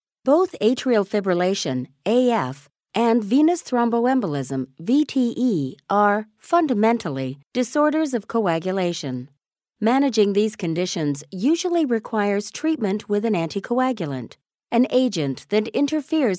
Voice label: english american medical narration